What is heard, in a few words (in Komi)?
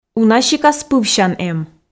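уна сикас пывсян эм, Komi, female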